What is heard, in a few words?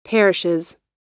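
"perishes", American English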